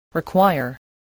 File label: "require", English